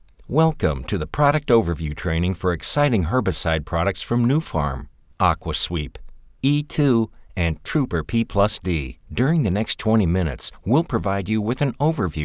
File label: Revised narration sample